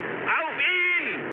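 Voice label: German attack position mood low, speech; War Thunder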